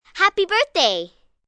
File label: Happy Birthday, Occasions, 1 girl, 9 yrs.; Holiday Sound Effects